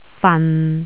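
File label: "fan6", Cantonese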